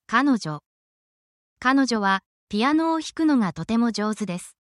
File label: japanese person titles